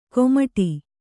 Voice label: "ಕೋಮಟಿ", Kannada

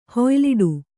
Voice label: "ಹುಯಿಲಿಡು", Kannada

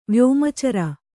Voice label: "ವ್ಯೋಮಚರ", kannada

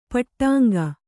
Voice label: "ಪಟ್ಟಂಗ", Kannada

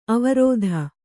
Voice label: "ಆವರ್ತ", Kannada

